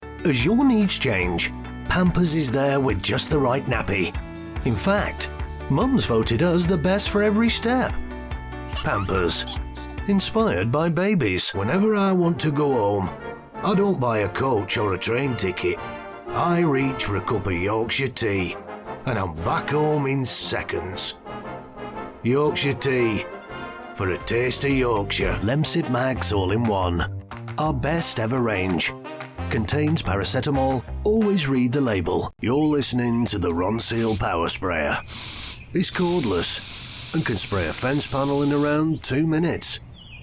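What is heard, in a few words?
Commercial Reel, voices male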